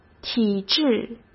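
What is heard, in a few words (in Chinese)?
"体制", Mandarin Chinese; hsk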